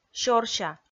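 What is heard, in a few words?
"сёрся" Komi, female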